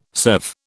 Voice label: "serve", British

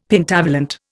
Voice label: "Pentavalent", Indian; Dictionary

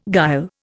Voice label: "guile", Indian

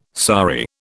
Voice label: "sari", British

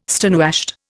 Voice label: "stonewashed", Indian

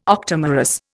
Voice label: "octamerous", Indian

